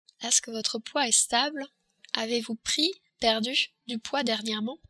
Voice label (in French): poids stable, french